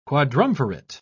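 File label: "quadrumvirate", English, British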